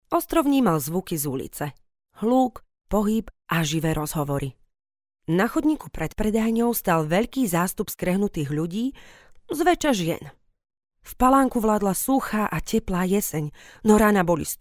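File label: Slovak, female, narration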